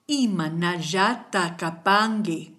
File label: how are you, kichwa